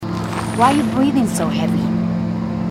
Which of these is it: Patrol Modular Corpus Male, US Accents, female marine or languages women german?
female marine